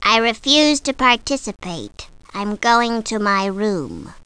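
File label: "I refuse", spoken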